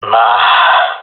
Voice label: round lost, jungle male; player vo; Counter Strike Model Pack; CS:GO

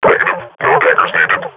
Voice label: combat idle, grunt; Half Life Model Pack

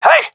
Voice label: taking fire friendly, gendarmerie male; vo

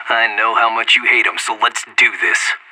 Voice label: radio lock n load; anarchist

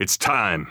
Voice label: radio lock n load; vo; Counter Strike Model Pack; CS:GO; phoenix coop

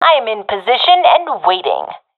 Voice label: waiting here, professional fem; vo; Counter Strike Model Pack; CS:GO

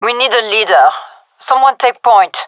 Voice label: request leader, gendarmerie fem; vo

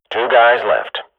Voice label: radio bot kill count, swat; agents

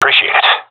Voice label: thankful; Counter Strike; CS:GO; seal diver

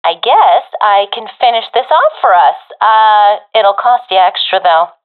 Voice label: last unit alive, professional fem; vo; Counter Strike Model Pack; CS:GO

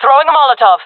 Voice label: throwing molotov, fem; player vo; Counter Strike Model Pack; CS:GO

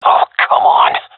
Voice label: scared; Counter Strike; CS:GO; seal diver

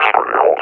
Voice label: reconnoiter start, officer; combine; Half Life Alyx; Half Life Model Pack

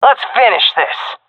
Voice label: round winning, seal fem; vo; Counter Strike Model Pack; CS:GO

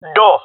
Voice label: "door", jungle male; player vo; Counter Strike Model Pack; CS:GO

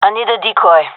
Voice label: request decoy, fem; vo

